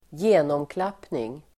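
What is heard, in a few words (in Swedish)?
"genomklappning", Swedish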